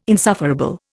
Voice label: "insufferable", Indian